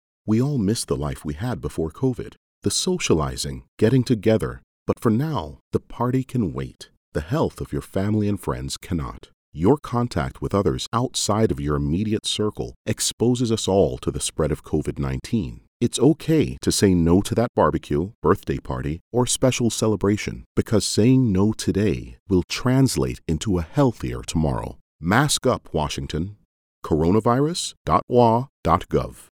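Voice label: English, Canadian, male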